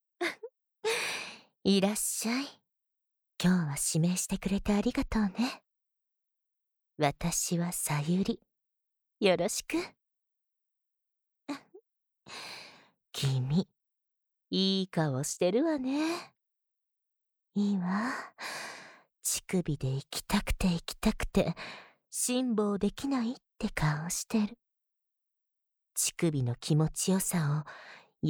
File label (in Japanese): セクシー人妻お姉さん, ASMR; 効果音なし; 乳首責めだけで射精コース